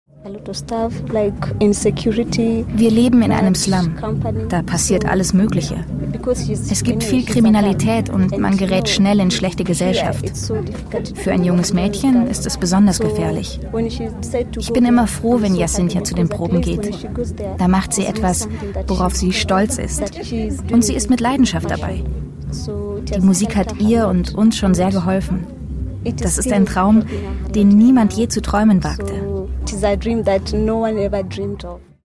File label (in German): Voiceover; SWR2 Leben